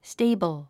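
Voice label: "stable", English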